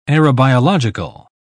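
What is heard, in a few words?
"aerobiological", British English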